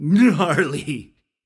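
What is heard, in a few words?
"Gnarly", voice over; wild water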